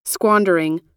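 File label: "squandering", American English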